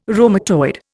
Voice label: "rheumatoid", Indian